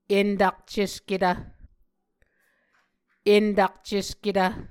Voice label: "entakcesketv", Muscogee